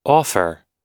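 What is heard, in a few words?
"offer", American English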